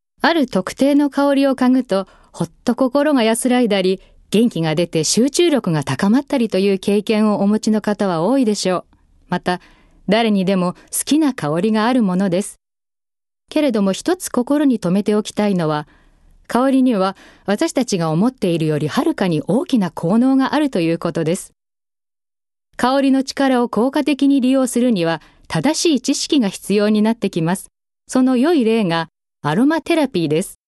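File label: narration, female voice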